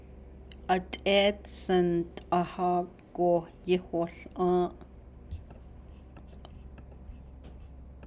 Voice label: navajo particle; ’At’ééd sin t’ahaa’go yíhooł’ą́ą́’.; The girl quickly learned the song

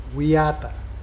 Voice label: build, Mokilese

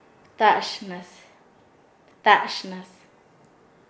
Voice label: "aviso", Nasa Yuwe; paez

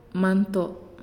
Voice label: "Full", Naasioi

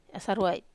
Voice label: "asaruai", Wamesa

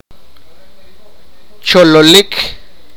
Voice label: sipakapense; flow

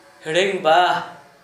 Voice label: opposite, Gta, Dialect: Hill; hɽiŋba

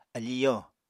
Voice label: "afi yo", apatani

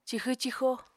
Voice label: "cixw-cixo", Apatani

